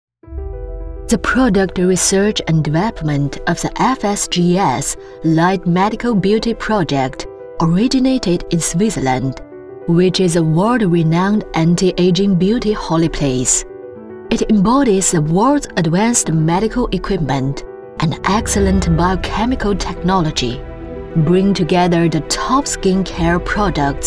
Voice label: 英文轻医美

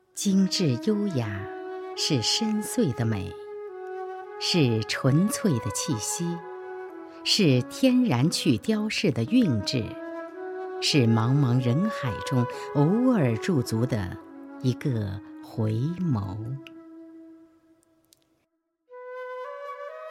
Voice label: 抒情

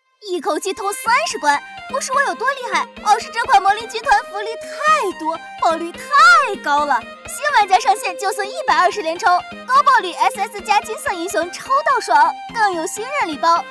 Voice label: 游戏信息流土嗨激情

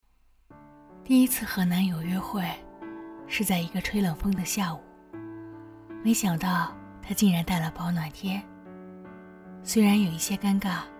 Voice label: 暖宝贴情感

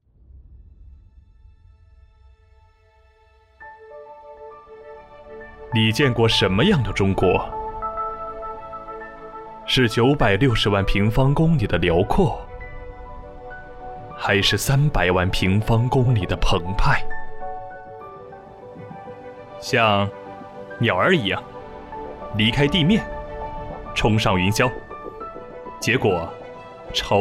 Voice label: 专题片; 专题片航拍中国

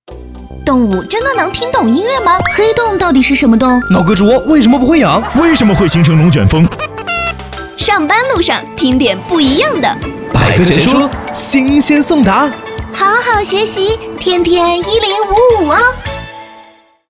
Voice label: 电台广告; 电台广告百科全说

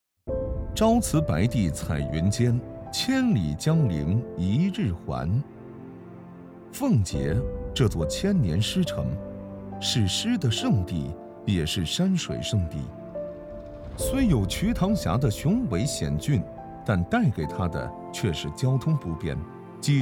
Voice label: 大气娓娓道来; 奉节

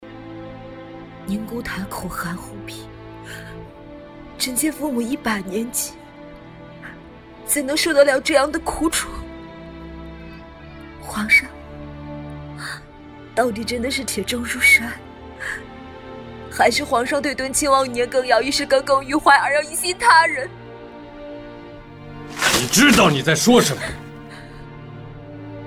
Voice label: 女性角色悲伤类情感配音

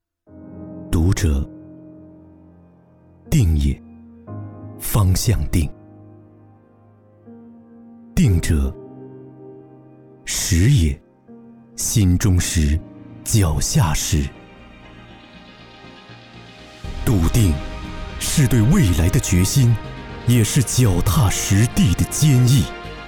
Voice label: 宣传片

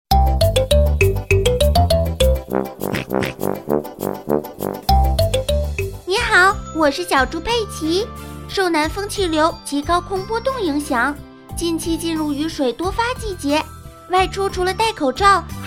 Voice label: 动画角色; 小猪佩奇

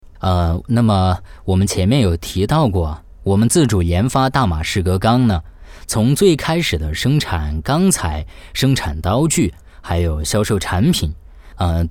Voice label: 素人 电台 采访 广告 自然 生活化

